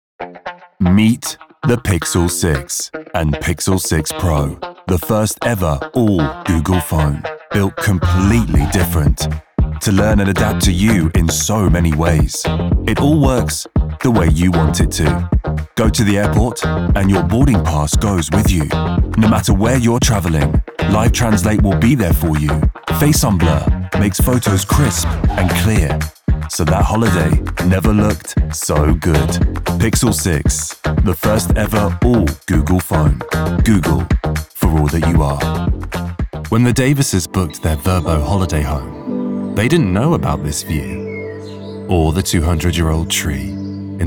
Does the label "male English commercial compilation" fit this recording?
Yes